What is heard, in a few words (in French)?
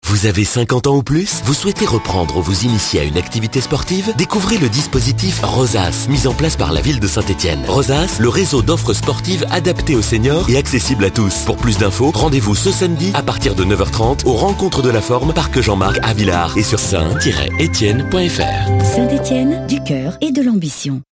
radio pub spot; sports loisirs